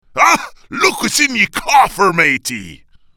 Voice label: Pirate